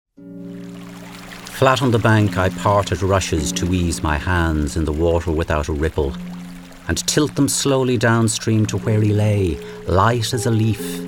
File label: Narration; Trout; Vocal Point